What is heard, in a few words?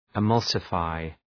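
"emulsify", English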